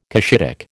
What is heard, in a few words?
"Cushitic", American